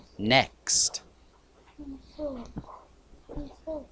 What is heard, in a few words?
whisper